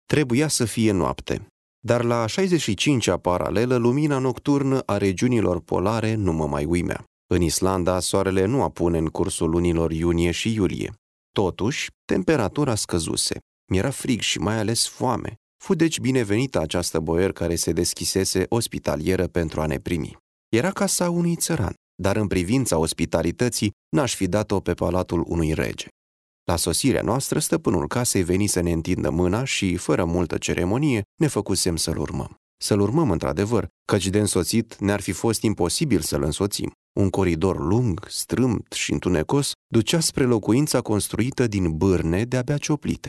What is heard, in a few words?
M AudioBook